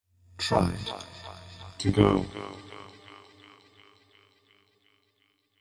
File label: processed male vox poetry bits; Robotic or Stuttery or Spooky